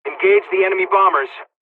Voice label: bomber attack, dialogs czech; War Thunder

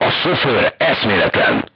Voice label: voice message driver contused, hungarian

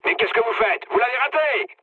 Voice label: bomb failure mood med, speech fr; War Thunder

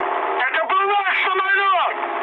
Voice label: ru player killed ally mood med; War Thunder

